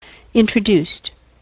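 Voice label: "introduced", US English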